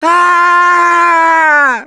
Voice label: Incapacitated Injury, left4dead2 russian, Survivor Voice; Gambler

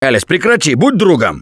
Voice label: friendly fire, left4dead2 russian, Survivor Voice; Gambler

